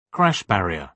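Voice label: "crash barrier", British English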